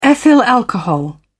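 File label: "ethyl alcohol", British English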